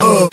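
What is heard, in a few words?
"uh", male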